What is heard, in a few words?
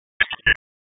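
Police District Tactical, p25; wake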